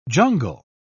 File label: "jungle", pronounce